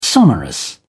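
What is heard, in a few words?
"sonorous", British English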